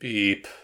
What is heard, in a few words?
Voices sad; The Looker